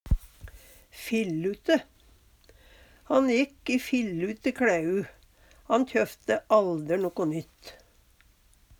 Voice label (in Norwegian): fillute, Numedalsmål; Han jekk i fillute kLæu. Han kjøfte alder noko nytt.; Adjektiv